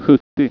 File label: "sjuttio", swedish